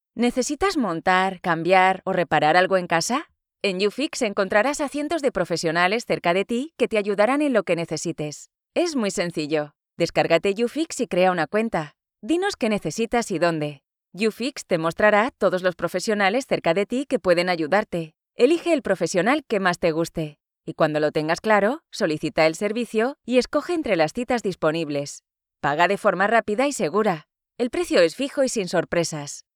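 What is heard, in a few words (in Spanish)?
corporativo España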